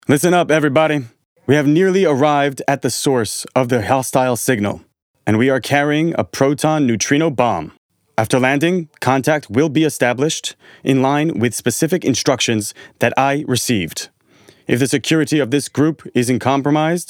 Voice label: movie US